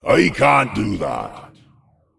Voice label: Demon Emote ERROR GENERIC